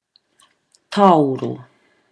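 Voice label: "taoro", Māori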